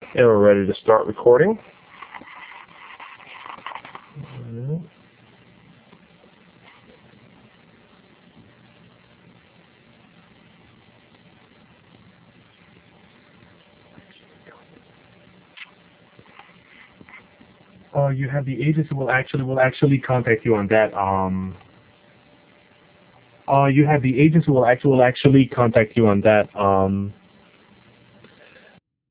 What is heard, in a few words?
dubbing